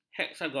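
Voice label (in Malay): "heksagon", Malay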